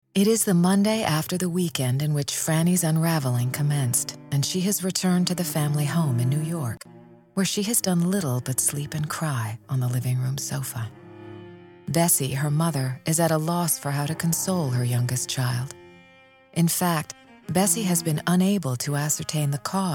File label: audiobooks